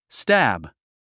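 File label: "stab", English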